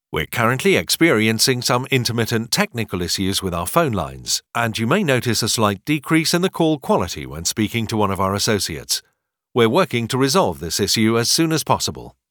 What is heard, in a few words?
english british ivr